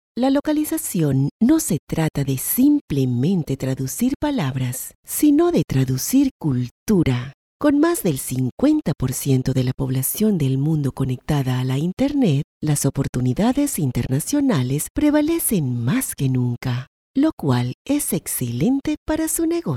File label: spanish F LATAM, telephony